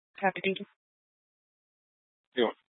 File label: Mesa County Sheriff Dispatch